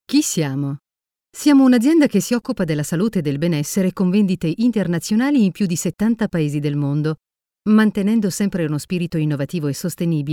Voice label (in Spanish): narracion italiano